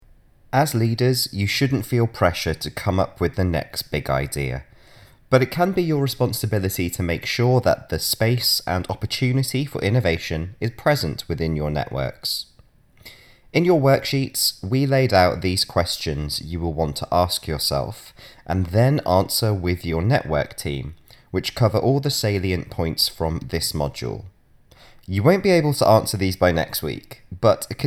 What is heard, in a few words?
Narration; Radius; AI